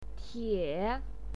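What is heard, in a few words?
"tie", Mandarin Chinese, third tone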